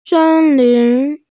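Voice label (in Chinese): "sen1lin2", Mandarin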